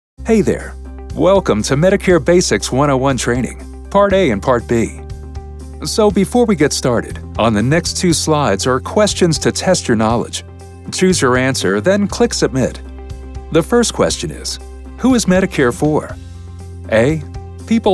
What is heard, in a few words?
instructional